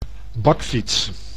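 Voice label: "bakfiets", Dutch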